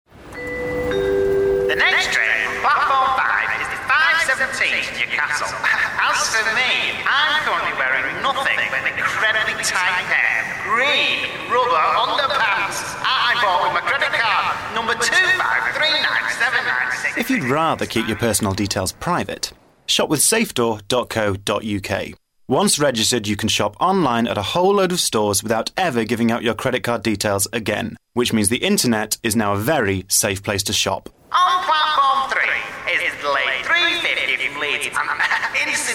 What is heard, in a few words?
Commercial; Safe door; Vocal Point